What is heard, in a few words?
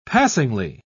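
"passingly", British English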